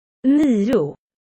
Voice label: "Nio" swedish

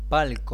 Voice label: Box spanish

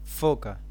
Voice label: Seal, spanish

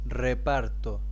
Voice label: Cast spanish

